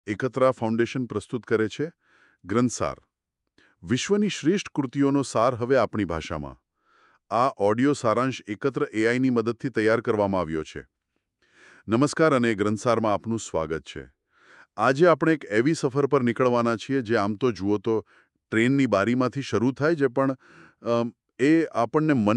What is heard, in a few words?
gujarati; the girl on the train